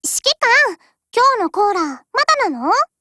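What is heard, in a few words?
HELLO, Japanese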